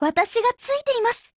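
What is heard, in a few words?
SKILL Japanese; CAR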